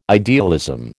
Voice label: "idealism", American